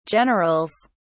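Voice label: "generals", British English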